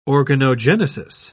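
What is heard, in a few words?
"organogenesis", British English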